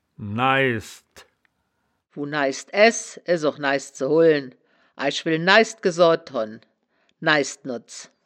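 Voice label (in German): "naishd", wittlicher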